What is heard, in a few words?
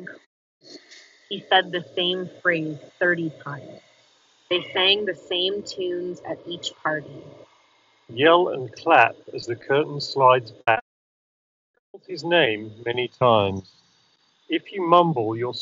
Apple Airpods Pro Street microphone sample; 2nd generation; Powerbeats Pro 2 vs AirPods Pro 2